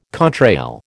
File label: "contrail", American